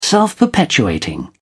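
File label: "self perpetuating", British English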